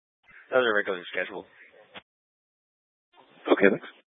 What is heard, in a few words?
Tactical Incidents, scanner